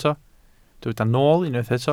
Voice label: "nool", Welsh